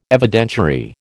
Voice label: "Evidentiary", American